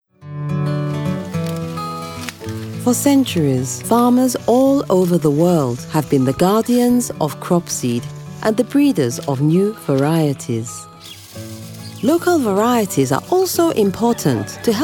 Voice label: Documentary; Malawi farmers